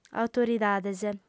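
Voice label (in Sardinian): "autoridades", female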